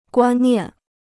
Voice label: "观念", Chinese

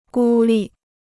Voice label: "孤立", Chinese

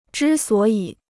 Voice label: "之所以", Chinese

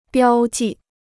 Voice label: "标记", Chinese

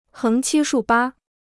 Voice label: "横七竖八", Chinese

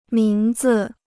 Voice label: "名字", Chinese

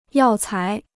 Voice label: "药材", Chinese

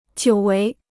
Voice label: "久违", Chinese

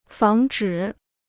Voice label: "防止", Chinese

